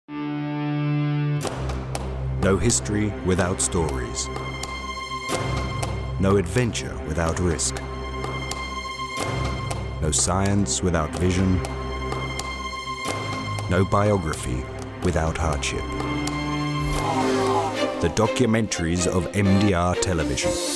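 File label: advert voice; MDR Intro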